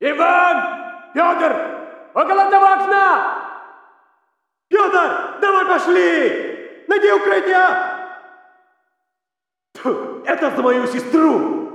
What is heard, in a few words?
walla rus mountain chatter; modern warfare 2; amb walla